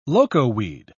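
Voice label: "locoweed", English, British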